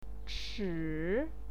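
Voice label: "chi", Mandarin Chinese, third tone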